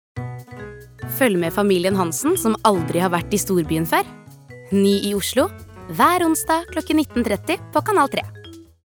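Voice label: Norwegian promo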